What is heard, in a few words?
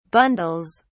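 "bundles", British English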